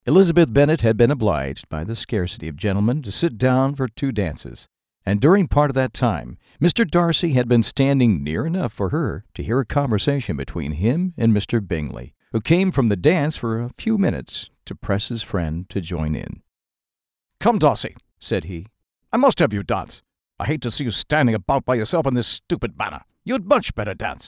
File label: Audiobook; Pride Prejudice